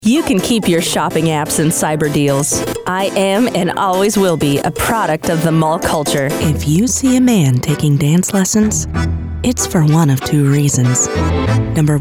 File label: Commercial